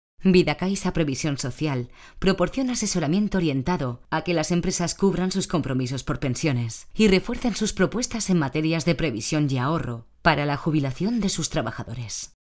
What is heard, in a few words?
spanish female voiceover locutora; Spanisch Sprecherin